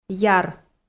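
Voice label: "яр", Komi-Zyrian, female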